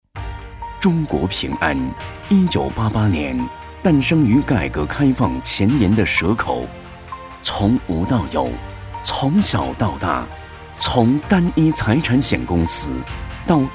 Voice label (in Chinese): 男-专题-保险; 平安保险